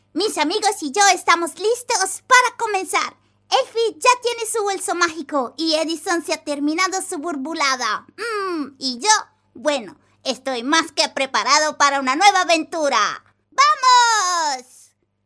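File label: Cartoon Character, Spanish; Lingufino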